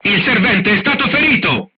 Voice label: voice message charger wounded, crew tanks italian